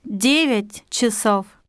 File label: russian 1 hour